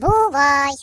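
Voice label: "Bye bye", British English; hedgewars; Default uk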